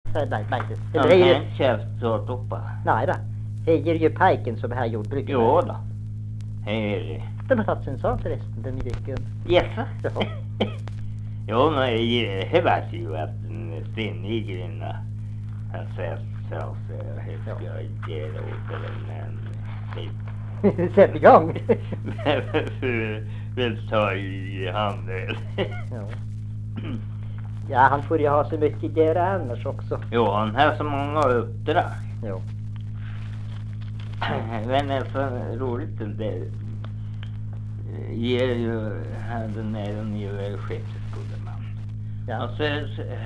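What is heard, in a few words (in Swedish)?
Norsjö Västerbotten, dialekter; Folkminnen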